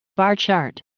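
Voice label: "bar chart", English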